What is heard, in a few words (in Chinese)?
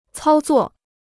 "操作", Chinese